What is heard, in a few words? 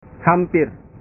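"hampir", Indonesian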